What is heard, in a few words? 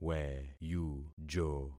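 "weyujo", concatenated syllables; Affixing experiment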